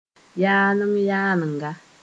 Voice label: "yaleng-yalenga", Moore